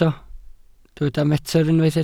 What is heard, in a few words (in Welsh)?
"metr", Welsh